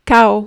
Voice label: "chaos", French